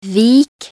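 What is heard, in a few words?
"vik", Norwegian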